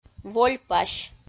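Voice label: "вольпась", Komi, female; audio dict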